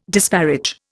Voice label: "disparage", Indian